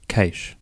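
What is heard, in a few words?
"cache", Australian English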